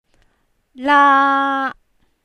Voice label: "laa1", cantonese